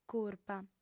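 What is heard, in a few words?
"curpa", female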